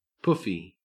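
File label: "poofy", Australian English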